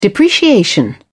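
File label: "depreciation", English, British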